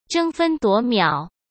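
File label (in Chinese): "争分夺秒", Mandarin Chinese; hsk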